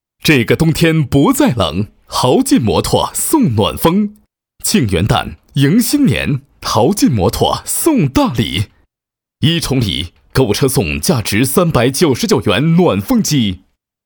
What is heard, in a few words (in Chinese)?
男 广告 促销; 这个冬天不再冷